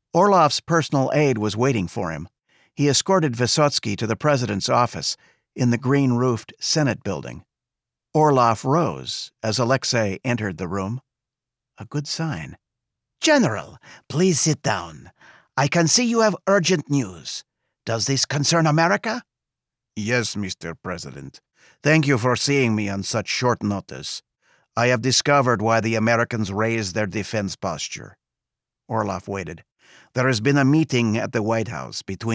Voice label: Fiction Mystery Russian Accent